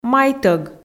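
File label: "майтӧг", Komi, female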